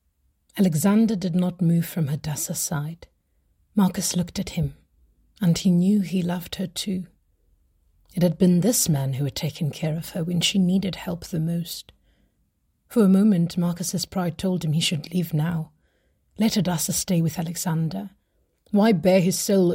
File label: British RP Documentary